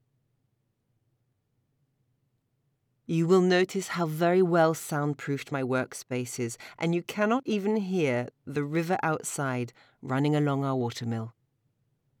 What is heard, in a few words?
home studio recording english